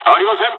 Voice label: dialogs japanese; War Thunder